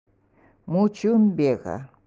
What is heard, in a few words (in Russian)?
июнь, эвенкийский